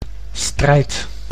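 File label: "strijd", Dutch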